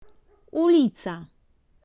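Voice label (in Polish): "ulica", Polish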